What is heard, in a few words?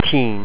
"tinn", ireland language pronounciation; Monophtongs